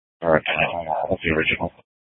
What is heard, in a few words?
Sheriff, scanner; Shrf Lakes